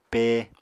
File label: "pee", apatani